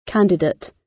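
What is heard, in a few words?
"candidate" British; Business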